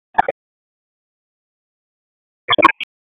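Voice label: Raleigh Police Downtown District Tactical, p25; wake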